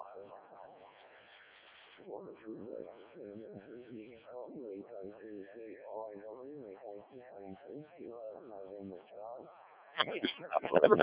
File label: decoded speech, 7177KHz